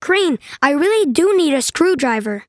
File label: ENG M